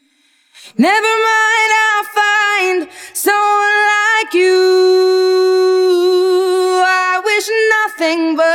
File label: english female seperated; singing voice conversion